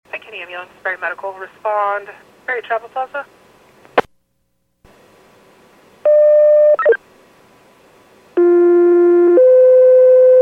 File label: PAGING